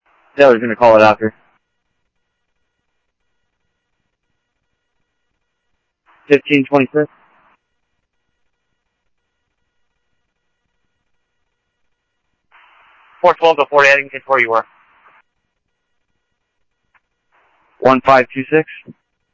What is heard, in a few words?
Interop Mutual Aid, scanner; G1 Interop MutualAid-InterS; ThompsonPeakHigh